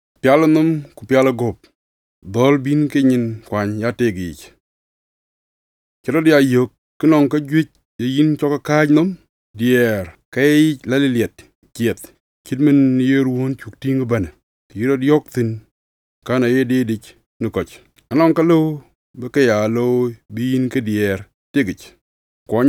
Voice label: Mental Health Wellbeing Tips to Address Emotional Overload, DINKA